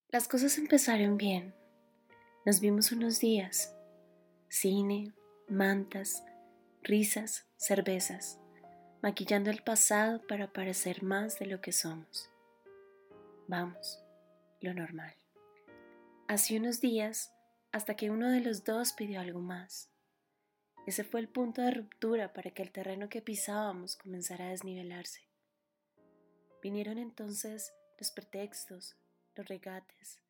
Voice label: Spanish (LA) Female